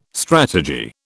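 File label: "strategy", British English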